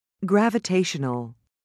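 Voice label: "gravitational", British English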